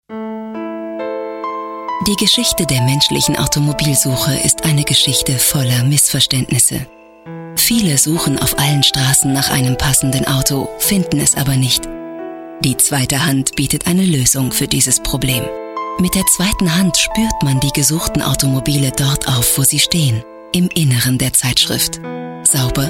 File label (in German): Imagefilm